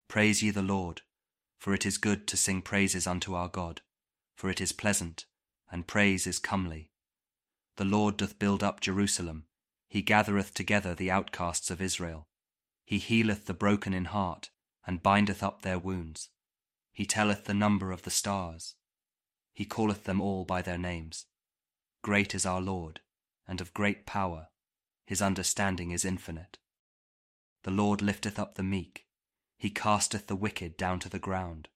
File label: psalm kjv king james audio bible